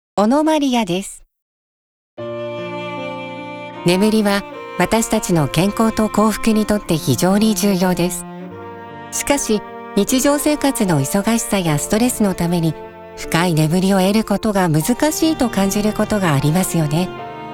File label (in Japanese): リラックス ストレート